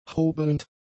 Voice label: "hobelnd", German; adjectives

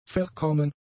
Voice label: "verkommen", German; adjectives

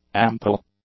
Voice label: "ample", English; adjectives

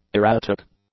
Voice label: "erratic", English; adjectives

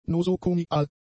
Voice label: "nosokomial", German; adjectives

